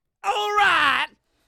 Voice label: Scream Allright

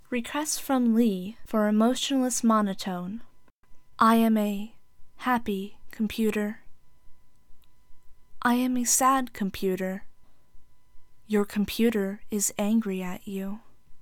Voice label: Emotionless Monotone Request

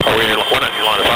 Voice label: Voice Male Radio Interference

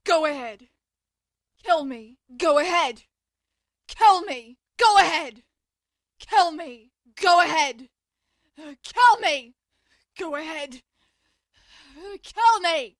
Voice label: Angry Go Ahead Kill Me

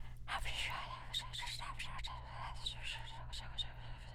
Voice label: Whispers

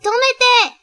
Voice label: Japanese Stop

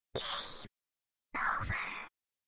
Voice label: Creepy Girl Says Game Over

